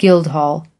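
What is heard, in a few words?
"guildhall", US English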